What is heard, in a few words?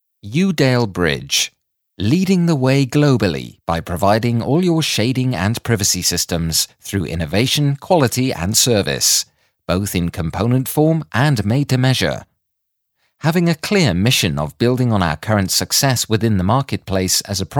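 British corporate info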